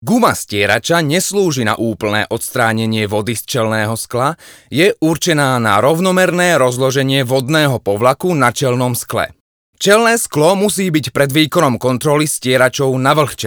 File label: slovak male